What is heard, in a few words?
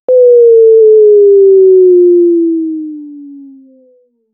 exaggerated wow sound effect in a cartoon